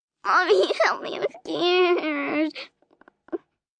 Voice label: Mommy Help Me, Scared, 1 child, 7 yrs; Exclamation; VoiceBox

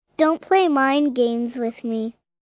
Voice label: Dont Play Mind Game, 1 girl, 5 yrs

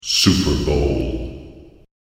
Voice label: Super Bowl Epic Voice; Football Sound Effects; human voice sound effect; spoken word

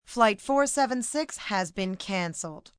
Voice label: Cancelled, Airport, PA, announcements, female

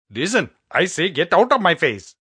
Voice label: Get Out Of My Face, Attitude, Male

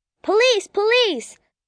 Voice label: Distress Police, Distress, 1 child, 10 yrs.; Exclamation

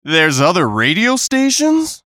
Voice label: VOICE COMICAL; Theres Other Radio Stations; Blastwave

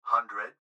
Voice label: Gps Voice, Male

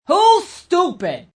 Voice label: Whos Stupid, Attitude, Female; VoiceBox

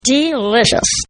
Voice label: Cartoon Voice Delicious; Cartoon Voices; Cartoons

